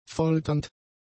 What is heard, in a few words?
"folternd", German; adjectives